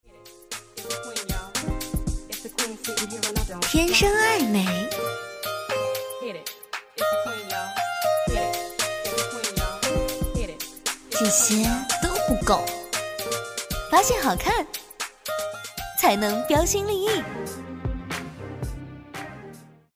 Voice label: 广告, 撒娇魅惑女生

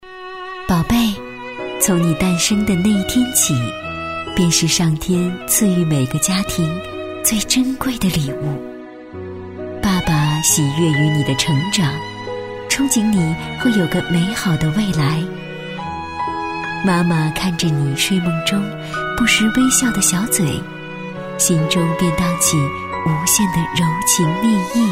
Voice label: 女, 早教企业, 早教工作宣传配音; 劳模创新工作室

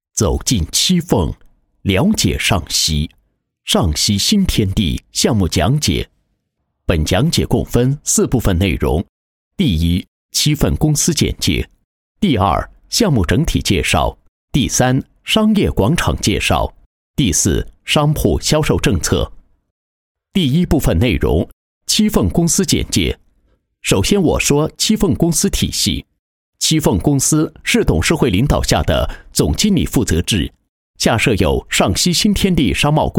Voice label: 男 项目介绍 讲解配音; 尚溪新天地

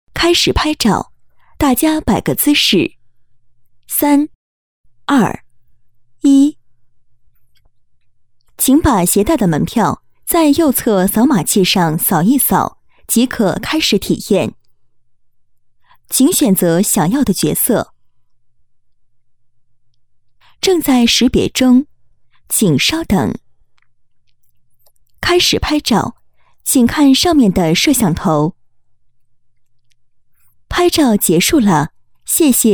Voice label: 女 导航类; 帝特视

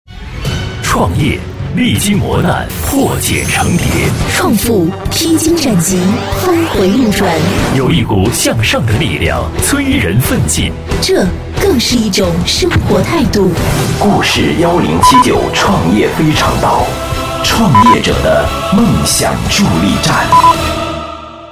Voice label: 女 片花; 创富非常道

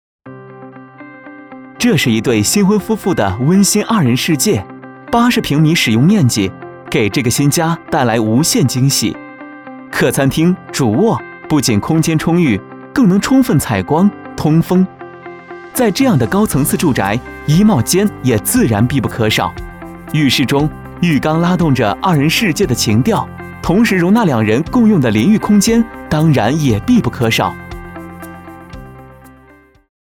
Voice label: 男 解说 自然温馨

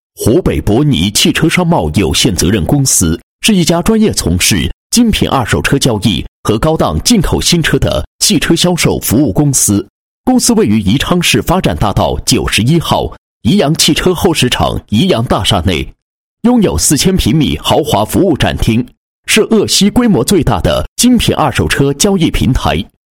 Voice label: 男 企业 宣传简介; 宜昌旺客隆公司宣传简介

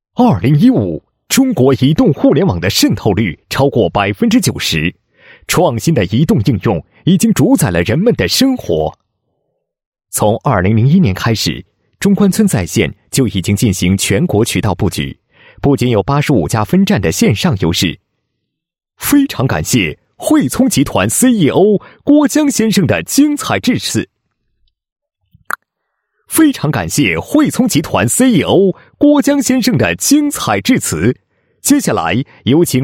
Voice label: 男 解说词 客户会的视频, 配音案例; 中关村在线

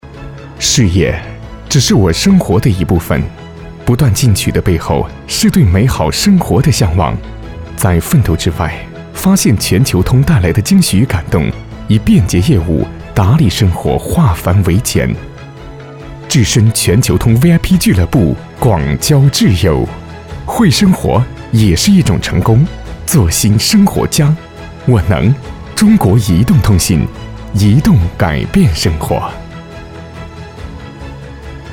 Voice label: 男, 广告; 中国移动

